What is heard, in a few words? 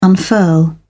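"unfurl", English, uk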